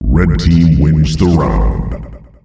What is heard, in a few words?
Red team round, announcer male; Unreal Tournament; ut2004